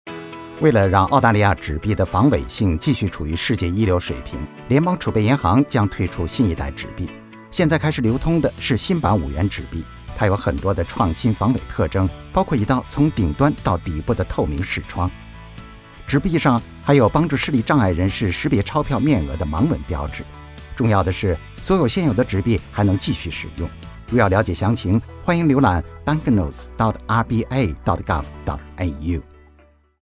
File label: radio ad translation mandarin